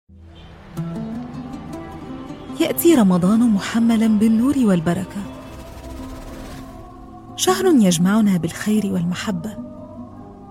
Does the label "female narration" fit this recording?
No